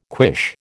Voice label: "quiche", American

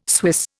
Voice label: "Swiss", Indian

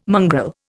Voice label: "mongrel", Indian

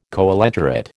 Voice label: "coelenterate", American

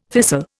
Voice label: "thistle", Indian

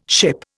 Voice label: "ship", Indian

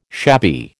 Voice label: "shabby", American

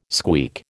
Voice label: "squeak", American

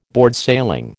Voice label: "boardsailing", American

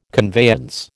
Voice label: "conveyance", American

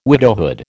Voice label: "widowhood", American

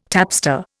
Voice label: "tapster", Indian

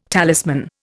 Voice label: "talisman", Indian

